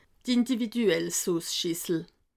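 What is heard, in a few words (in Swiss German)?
"soos", Alsatian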